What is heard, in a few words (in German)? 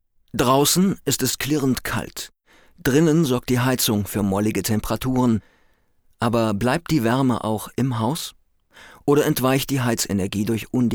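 elearning, deutsch männer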